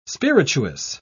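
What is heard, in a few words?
"spirituous", British English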